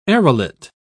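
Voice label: "arillate", British English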